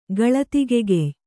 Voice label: "ಗಳತಿಗೆಗೆಯ್", Kannada